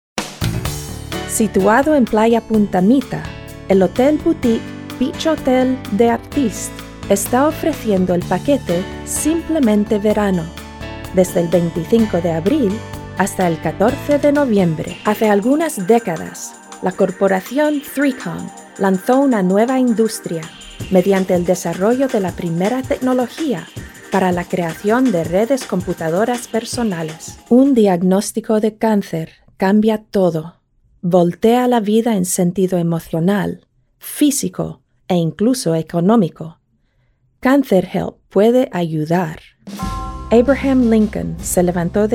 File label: Spanish